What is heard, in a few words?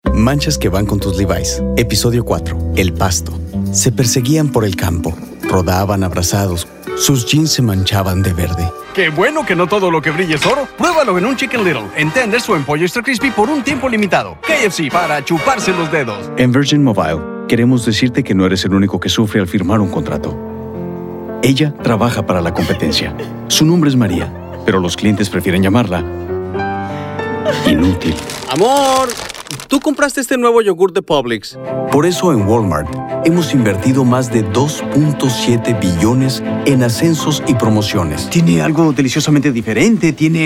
spanish commercial; Casual and natural Spanish language voice.